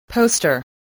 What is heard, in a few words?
"poster", English